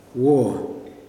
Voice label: "come", Kuman

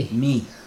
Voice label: "who", Chug

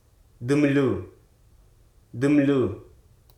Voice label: "immerse", Hruso

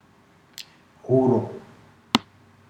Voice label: "neck not nape", ndau

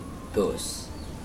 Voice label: boat, Mokilese

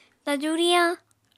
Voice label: "taju riyañ", Apatani

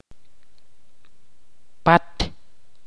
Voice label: "espalda" in Ch'orti'

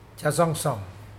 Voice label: diarrhea, Mokilese

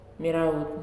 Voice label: "Dislike", Nalik

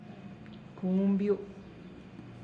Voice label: hi formal, Tlacochahuaya Zapotec; kunbiu?; (Spanish) hola - formal

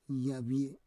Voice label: "yavie", Roon

